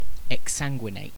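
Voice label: "exsanguinate", British English; pronunciations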